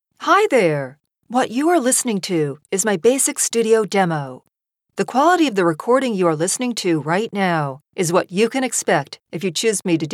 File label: studio